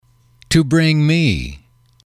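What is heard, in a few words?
"to bring me", English, male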